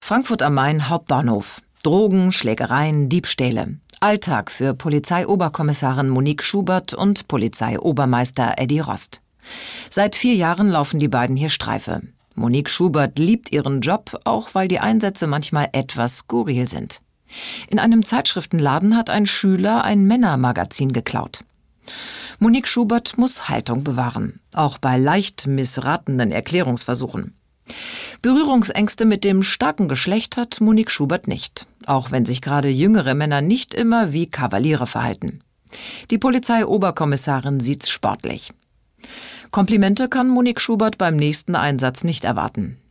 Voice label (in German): kommentar